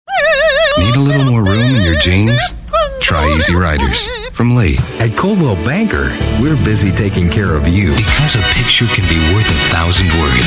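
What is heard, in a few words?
commercial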